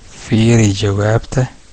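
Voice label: check answer, Chinese; gcompris-data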